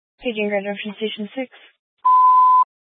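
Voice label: Fire Dispatch, Scanner audio recording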